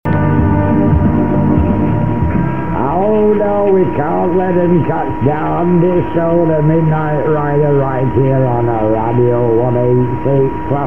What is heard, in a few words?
radio; 1611 radio 186